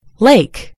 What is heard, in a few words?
"lake", en GB; gcompris